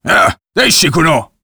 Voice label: emotion angry; Warrior 2